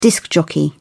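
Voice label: "disc-jockey", British English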